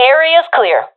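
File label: sees area clear, professional female; Counter Strike Model Pack; CS:GO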